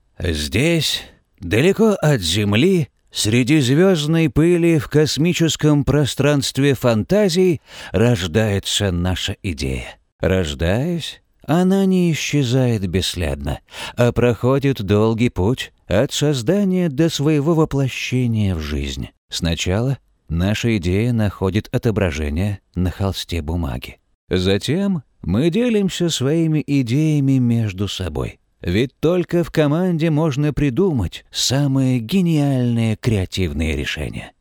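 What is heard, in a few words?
volshebnaya prezentaciya Russian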